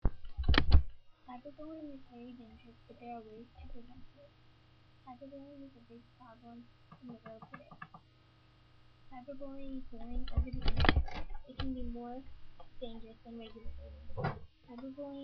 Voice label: public service announcement

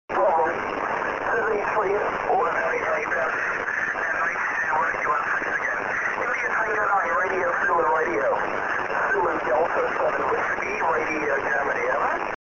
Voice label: on 6m SSB

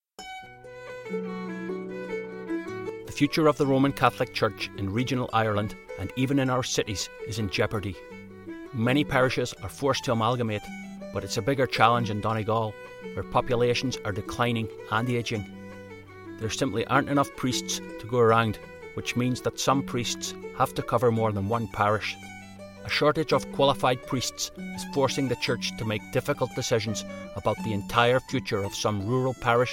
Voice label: irish accent

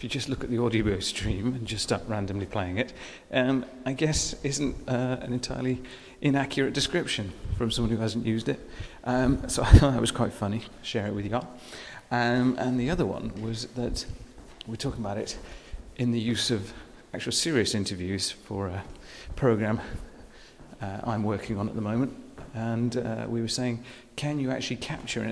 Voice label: answerphone hijack

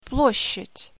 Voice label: "Square" In Russian

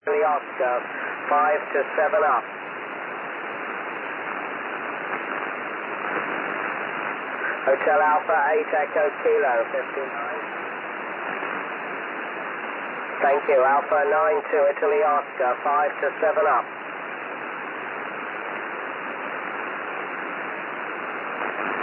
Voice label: 6m ssb

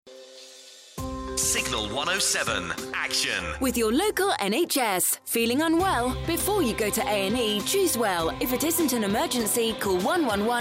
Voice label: police radio advert; wolverhampton; sportivate project

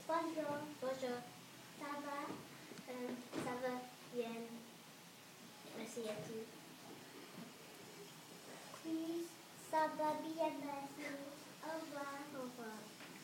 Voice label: practising formal and informal french greetings

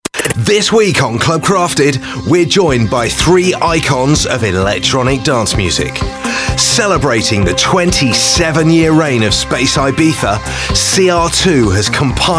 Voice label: voiceover; club krafted trail

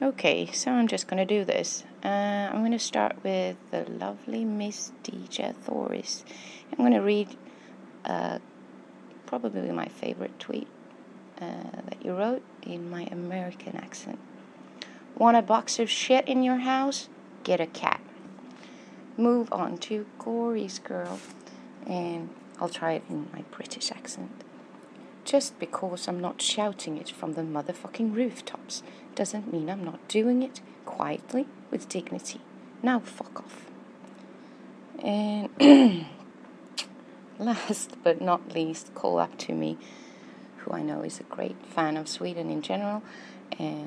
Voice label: swenglish

